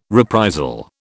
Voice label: "reprisal", British